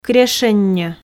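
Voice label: "крещенньо", Komi, female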